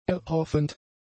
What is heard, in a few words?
"erhoffend", German; adjectives